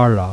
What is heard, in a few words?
long l, Phonetique